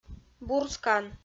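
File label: "бурскан", Komi, female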